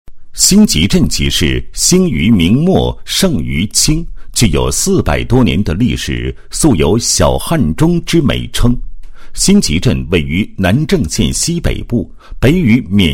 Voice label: 男 解说, 配音; 重点镇

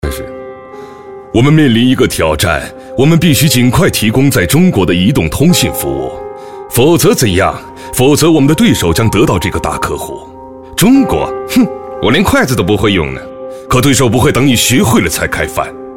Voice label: 男 宣传片 角色; 中国移动

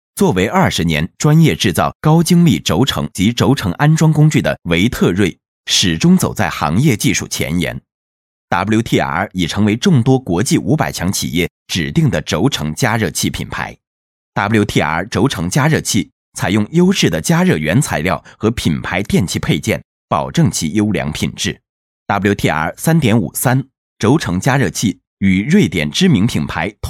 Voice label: 男 科技解说 解说配音; 磁感应加热方法解说配音

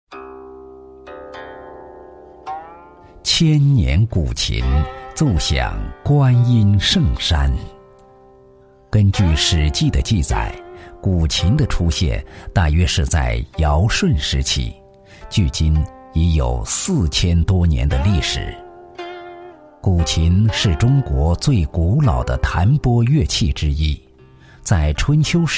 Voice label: 男 古韵悠悠, 磁性浑厚抒情; 纪录片; 千年古琴; 国语男声配音; 男9磁性浑厚抒情

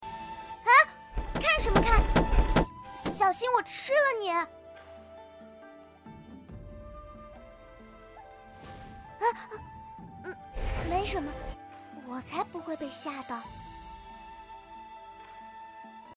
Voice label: 游戏, 超可爱萝莉

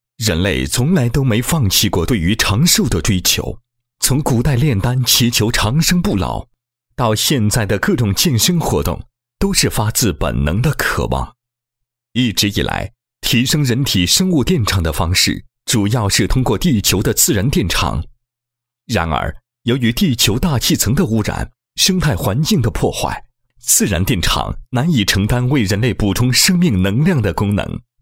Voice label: 男 产品介绍; 高潜能健康器宣传介绍

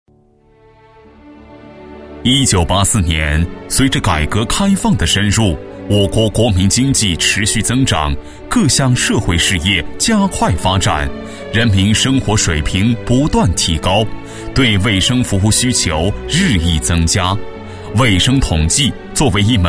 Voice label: 男 专题, 国语男声配音; 中国卫生信息学会

